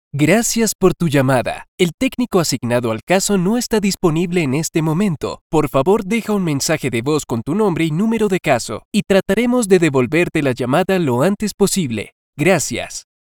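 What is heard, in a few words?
spanish latin american interactive voice response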